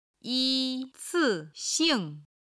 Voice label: "yi1ci4xing4", Chinese